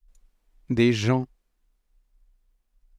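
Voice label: pronunciation "des gens", French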